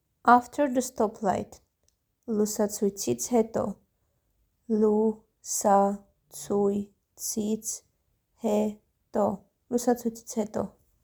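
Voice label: English "After the stoplight", Armenian "Lusatsuytsits heto"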